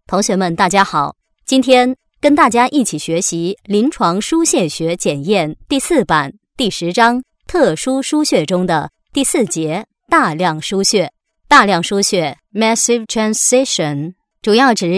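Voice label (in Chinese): 多媒体 培训课件 微课, 女, 普通话; 大量输血微课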